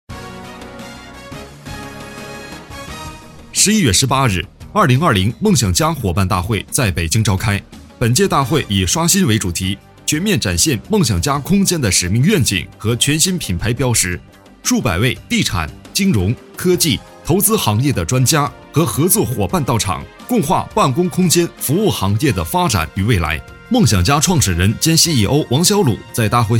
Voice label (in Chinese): 新闻